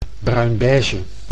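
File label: "bruinbeige", Dutch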